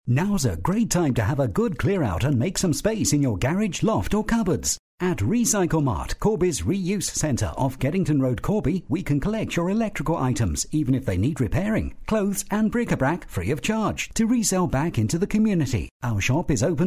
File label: male